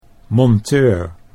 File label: "monteur", Dutch